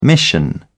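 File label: "mission", British English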